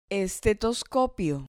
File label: "estetoscopio", Spanish